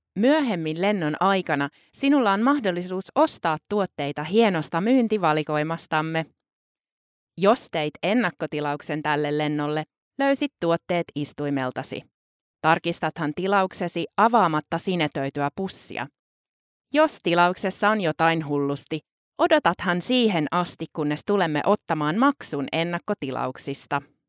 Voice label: female finnish voice over upbeat

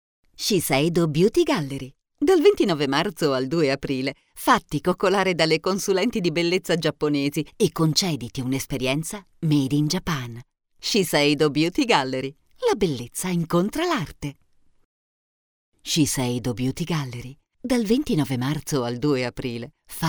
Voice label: female italian voice over sales

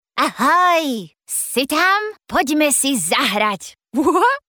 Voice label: female slovakian voice over character

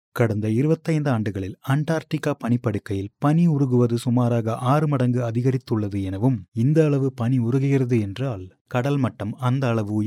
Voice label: male tamil voice over talent narrative

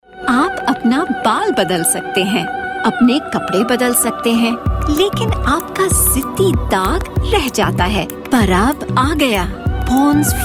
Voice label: female hindi voice over sales

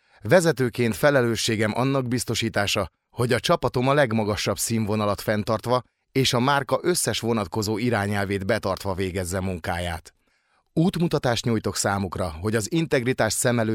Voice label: male hungarian voice over talent narrative

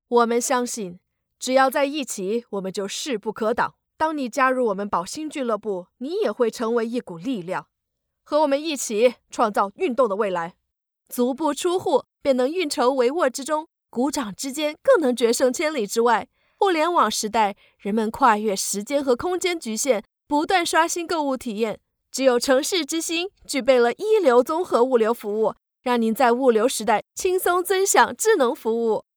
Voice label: female chinese voice over sales, mandarin

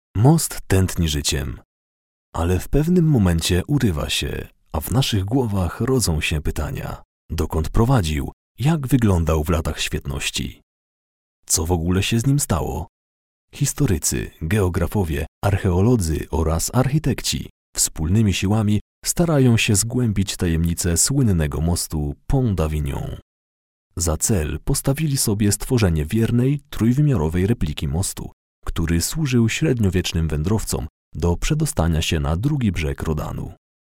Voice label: male polish voice over talent narrative

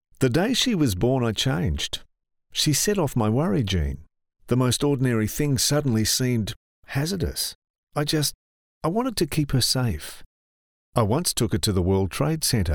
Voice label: male australian voice over character

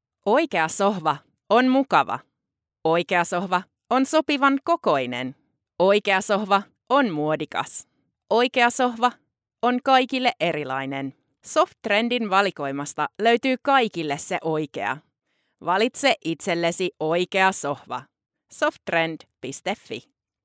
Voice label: female finnish voice over sales

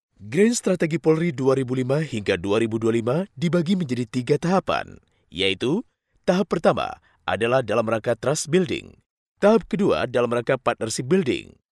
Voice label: male indonesian voice over narrative

